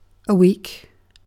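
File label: "week", British English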